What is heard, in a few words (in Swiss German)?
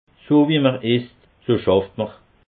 "isst", alsace